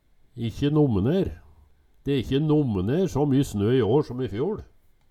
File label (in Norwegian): ikkje nommener, Numedalsmål; De e ikkje nommener så my snø i år som i fjoL